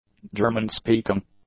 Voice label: "German-speaking", English; adjectives